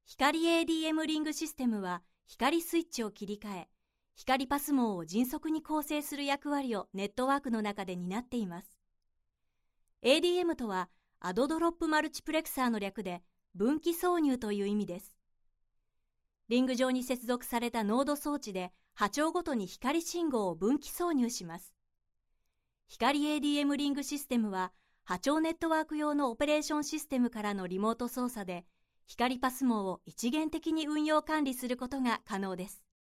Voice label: narration